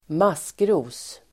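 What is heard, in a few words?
"maskros", Swedish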